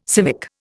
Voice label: "civic", Indian

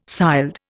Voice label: "sild", Indian

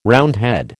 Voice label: "Roundhead", American

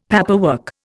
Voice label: "paperwork", Indian; Dictionary

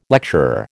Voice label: "lecturer", American

